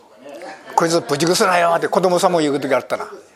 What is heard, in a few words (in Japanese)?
buzugusu, minami yamagata